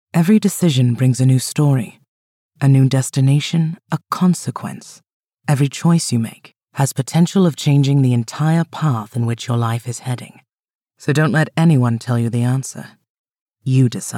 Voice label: corporate insightful intelligent suave husky sultry crisp thoughtful